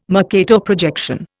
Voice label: "Mercator projection", Indian